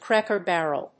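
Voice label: "cracker-barrel", English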